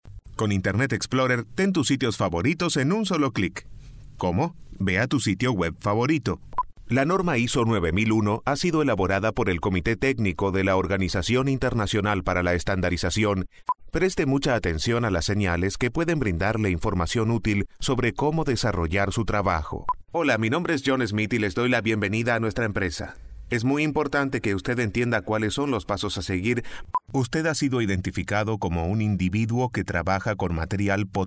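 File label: Spanish Male Narration, Rich, Conversational, Deep; Narration, Spanish (Latin and Castillian)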